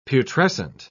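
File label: "putrescent", British English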